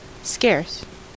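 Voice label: "scarce", American English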